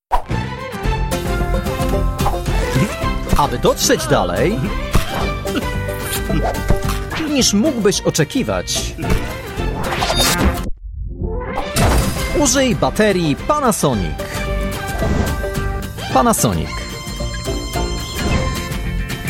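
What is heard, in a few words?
Polish, male, commercial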